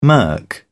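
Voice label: "murk", British English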